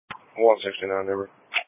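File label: Police Patrol Dispatch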